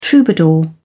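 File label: "troubadour", British English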